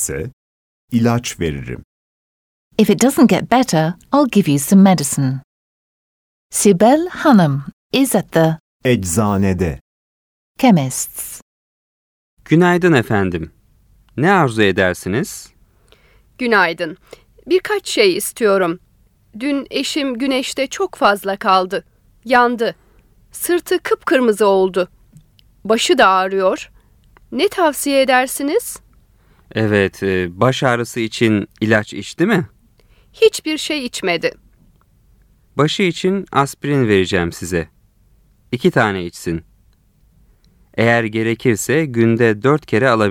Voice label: Dialogue, Turkish